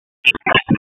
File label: Police Spec Ops Tac, p25; Raleigh; Tac 7